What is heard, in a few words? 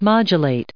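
"modulating" English, American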